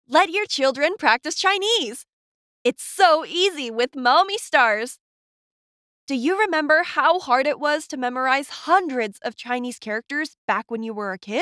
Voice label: Promo; Maomi Stars

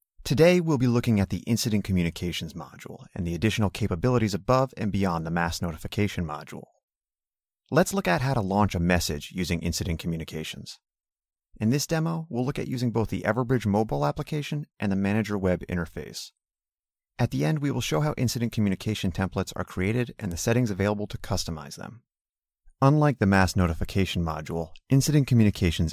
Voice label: Explainer

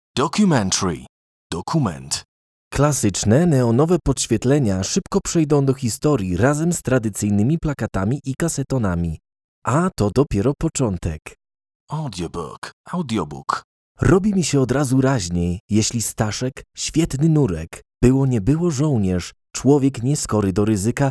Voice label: Polish Demo Reel

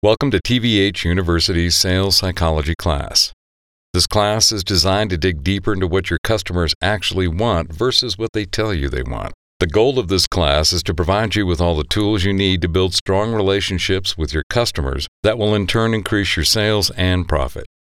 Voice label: eLearning

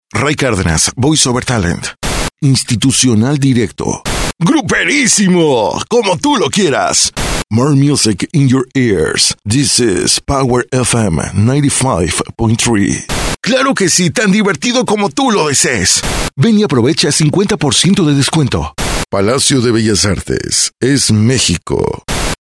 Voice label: dry

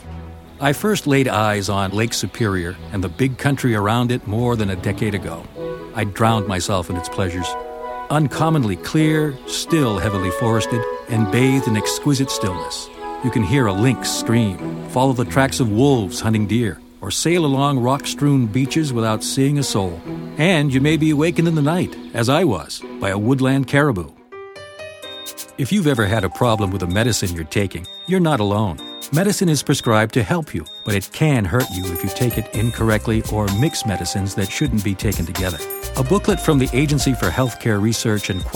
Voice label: Narration

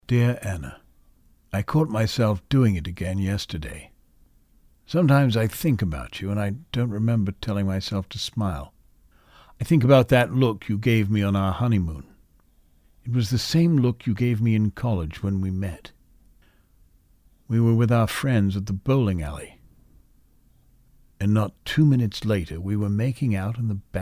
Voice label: English Love Letter

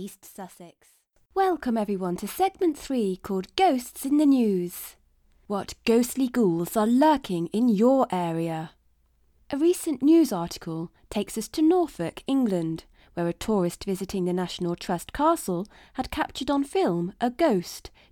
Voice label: Podcast

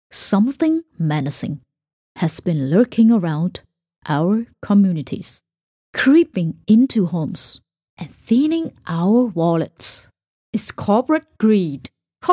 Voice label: Firm Authoritative Serious

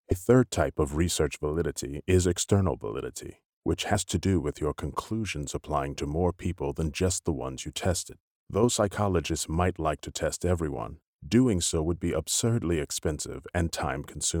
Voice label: eLearning

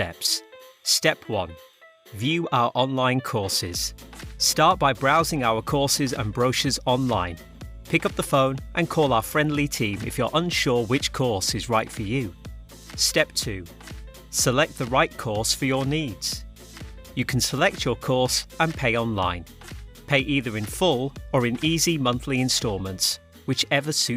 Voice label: Training VO

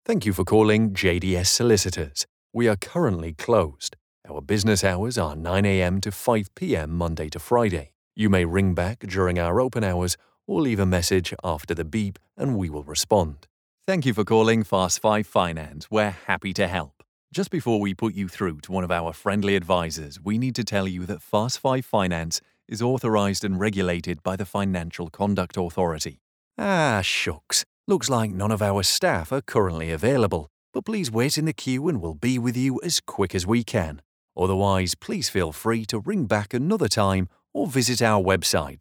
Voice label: IVR MOH Mix

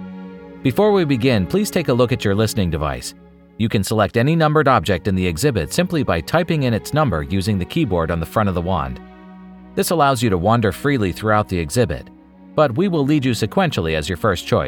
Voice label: Audio Tour

